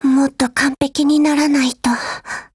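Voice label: BREAK, Japanese; GF Alarm; HK416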